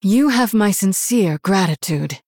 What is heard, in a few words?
Female Human Thanks; en